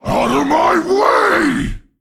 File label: Male Rhino Attack